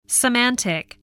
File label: "semantic", english spelling